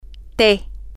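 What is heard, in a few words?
female, spanish; tea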